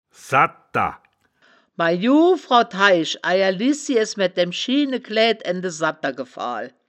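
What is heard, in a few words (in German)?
"sadtder", wittlicher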